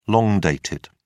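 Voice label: "long-dated", British English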